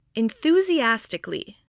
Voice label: "enthusiastically", voice, english

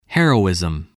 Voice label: "heroism", English, spelling

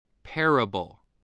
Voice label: "parable", English, voice

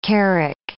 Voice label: "carrack", English

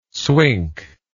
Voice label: "swink", English word

